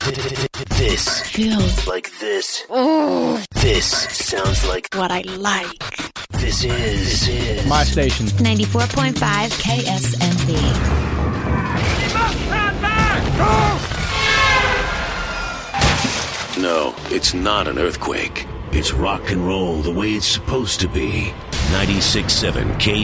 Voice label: Radio Imaging; Earwhacked